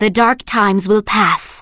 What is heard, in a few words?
Blood Elf Female Standard Greeting